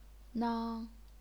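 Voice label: "nang", first tone, chinese pinyin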